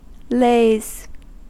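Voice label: "lays", American English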